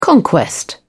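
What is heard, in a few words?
"conquest", British English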